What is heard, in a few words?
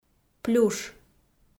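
"плюш", Komi, female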